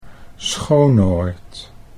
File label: "Schoonoord", Dutch